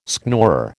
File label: "schnorrer", American